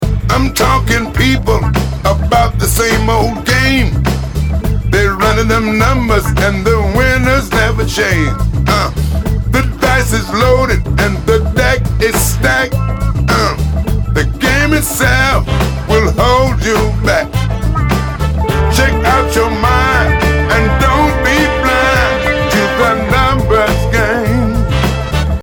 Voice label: vox distress amb; reverbe "Ambiant" de chez Lexicon (essentiellement du ER avec un peu de Room); notez la coloration bien audible et aussi l'effet de proximité : la voix semble nous sauter au visage